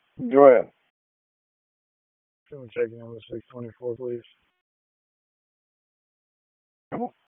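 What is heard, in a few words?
Police Southwest District Dispatch, p25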